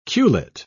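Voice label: "culet", British English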